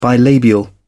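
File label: "bilabial", British English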